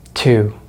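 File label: "two", American English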